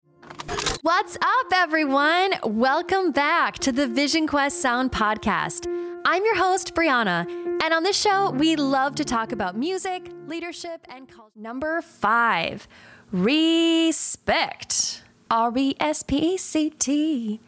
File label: english american podcasts